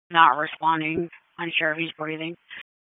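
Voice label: Police Southwest District Dispatch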